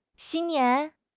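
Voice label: "xin1 nian2", Mandarin Chinese; HSK2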